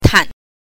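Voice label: "tan", Mandarin Chinese pinyin, neutral tone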